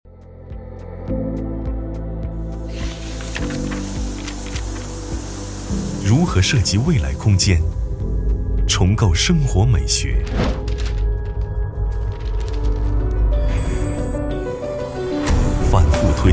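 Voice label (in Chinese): 男【广告】; 马可波罗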